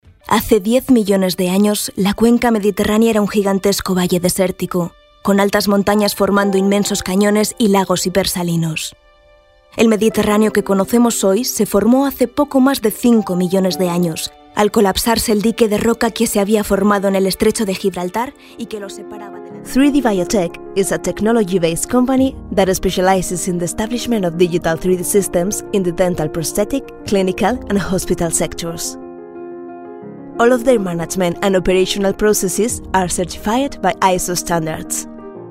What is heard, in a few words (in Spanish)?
Femenino